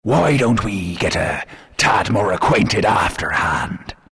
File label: announcer pick pretty lass, pirate; dlc workshop; capn